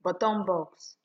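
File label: "bottom box", Nigerian Pidgin, female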